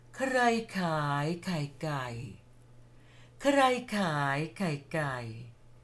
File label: Thai